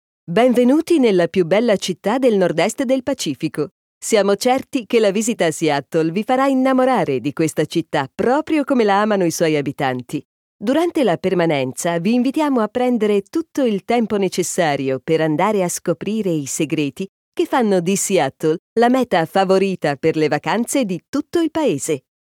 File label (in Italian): ITA Audioguida